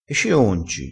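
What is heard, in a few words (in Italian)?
"concia", vocabolario dialettale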